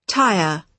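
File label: "tyre", British English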